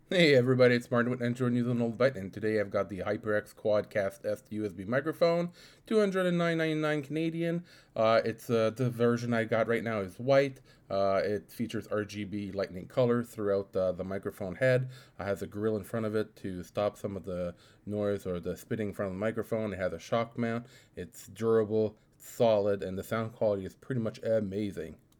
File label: HyperX QuadCast S Unedited; Android News and All the Bytes